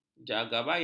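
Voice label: "jagabaya", Malay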